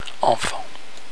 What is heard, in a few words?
"enfant", French